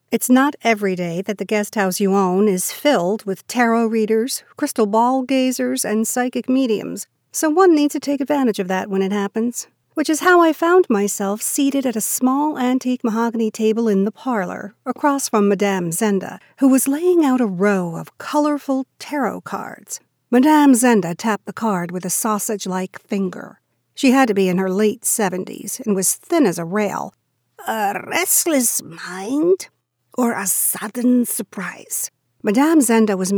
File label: Mystery Thriller Suspense; A Purrfect Alibi